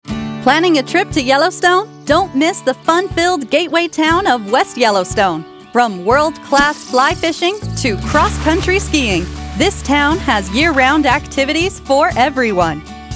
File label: Narration